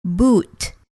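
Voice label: "boot", English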